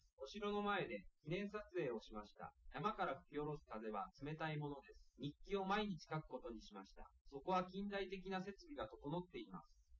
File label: male liverec 250ms 1m sim; SiSEC08; SiSEC underdetermined